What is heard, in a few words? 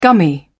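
"gummy", British English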